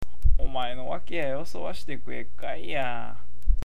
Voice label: "wake", awaji-ben